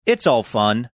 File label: australian; it's all fun